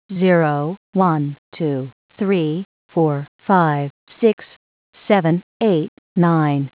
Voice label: All Digits 8khz